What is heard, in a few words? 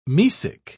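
"mesic", British English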